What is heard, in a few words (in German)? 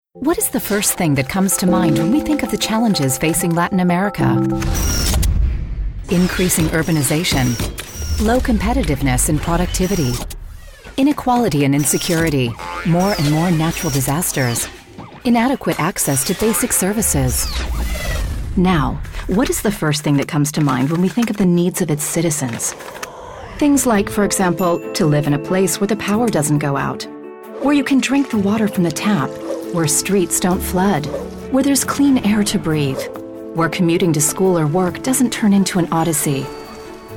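US English, Kommentar Neutral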